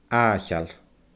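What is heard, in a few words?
"aaicheil", Scottish Gaelic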